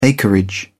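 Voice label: "acreage", British English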